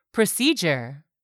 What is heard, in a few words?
"procedure", English; 3000 words